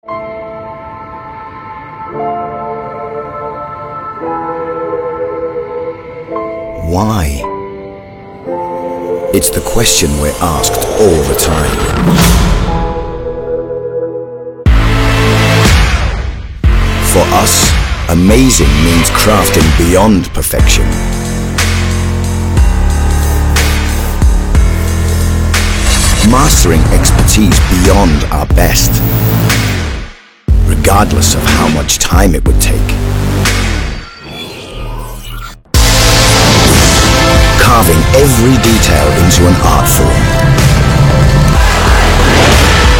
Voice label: UK English, male; Lexus LS